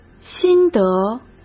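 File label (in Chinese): "心得", Mandarin; chinese-flashcards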